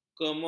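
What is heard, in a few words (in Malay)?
"kemul", Malay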